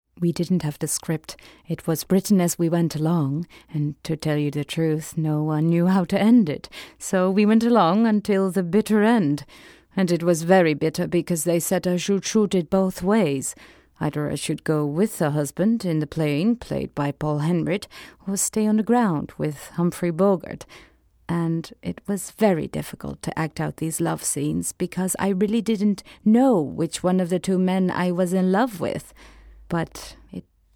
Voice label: VOICE OVER; INGRID BERGMAN